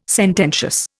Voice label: "sententious", Indian